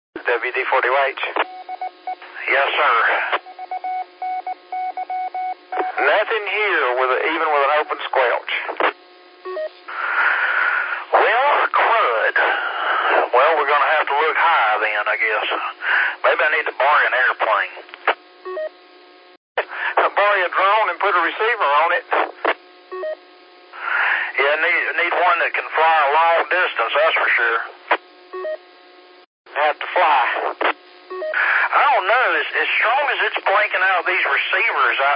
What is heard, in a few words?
qso rec simplex logic